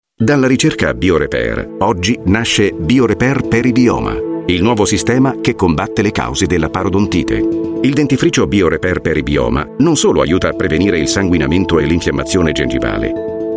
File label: Italian